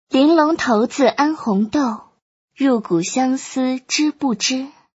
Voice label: girl; shaoxiadiablo